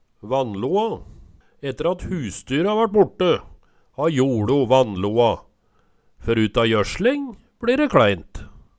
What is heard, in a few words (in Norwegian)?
vannloa, Numedalsmål